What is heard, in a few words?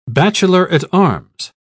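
"bachelor-at-arms", British English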